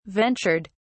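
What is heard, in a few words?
"ventured", British English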